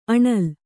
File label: "ಅಣಿಲ್", Kannada